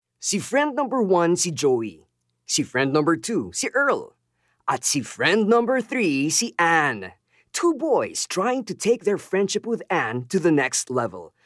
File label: Taglish (Warm-Hearted)